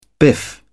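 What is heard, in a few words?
"biff", English, British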